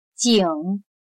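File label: "井" Chinese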